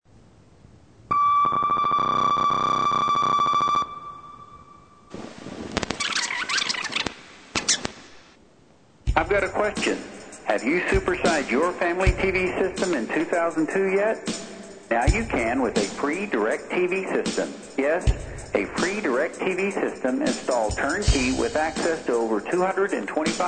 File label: phone spam